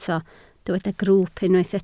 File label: "grwwp", Welsh